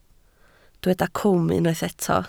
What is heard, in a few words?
"cwm", Welsh